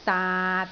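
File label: "daat6", Cantonese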